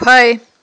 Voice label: "пай", Aghul

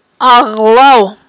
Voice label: "агълав", Aghul

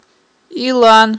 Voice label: "илан", Aghul

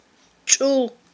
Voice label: "чIул", Aghul

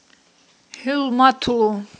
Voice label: "хІуьлматлу", Aghul

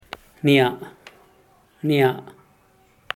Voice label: "nia'", mano, zapoteco teotitlan

